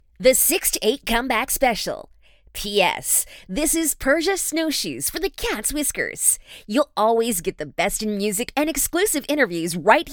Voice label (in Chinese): 电台激情风格